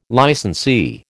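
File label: "licensee", American English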